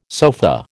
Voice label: "sofa", American

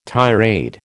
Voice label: "tirade", American

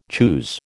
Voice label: "choose", American

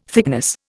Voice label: "thickness", Indian

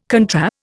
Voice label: "contra", Indian

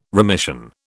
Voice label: "remission", British

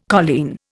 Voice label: "colleen", Indian

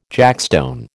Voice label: "jackstone", American

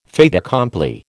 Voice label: "fait accompli", American

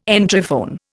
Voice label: "Entryphone", Indian

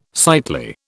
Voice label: "sightly", British

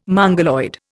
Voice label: "Mongoloid", Indian

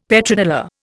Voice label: "Petrodollar", Indian; Dictionary

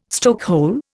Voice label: "stokehole", Indian

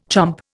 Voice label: "chomp", Indian

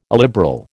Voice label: "illiberal", American

